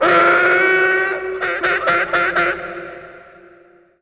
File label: vox tusken echo